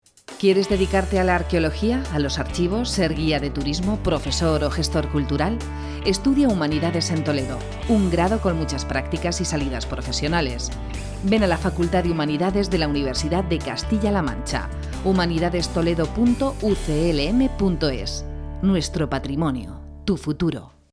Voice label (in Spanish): humanidades promo